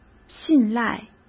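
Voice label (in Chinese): "信赖", Mandarin Chinese; chinese-flashcards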